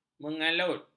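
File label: "mengelalut", Malay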